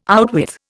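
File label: "outwith", Indian English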